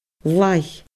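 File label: "laigh", Scottish Gaelic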